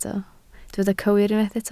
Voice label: "cywir", Welsh

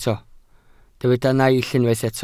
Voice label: "naill", welsh

